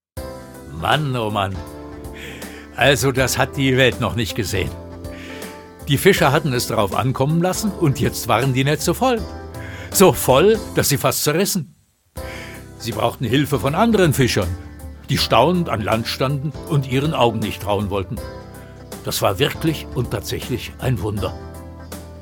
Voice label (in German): Erzaehler